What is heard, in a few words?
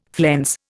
"flense", Indian